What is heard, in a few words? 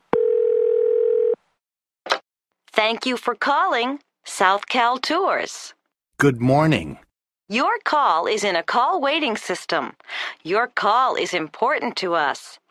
Telephone, english listening survival